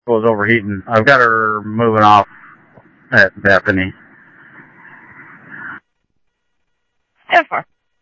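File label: Lakes Sheriff Lake Patrol, scanner audio trunk; MCSO Lakes 1 SheriffLakePatro; ThompsonPeakScot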